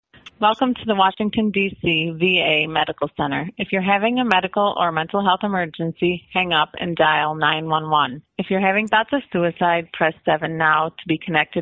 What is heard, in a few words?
Phone Greeting